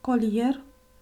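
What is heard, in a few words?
"colier", Romanian; ktuberling; tdegames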